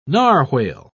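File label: "narwhale", British English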